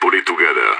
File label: pep talk, radio; SAS